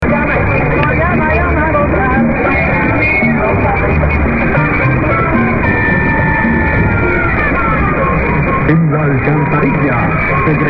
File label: radio exc ids; radio puerto cabello